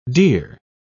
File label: "deer", English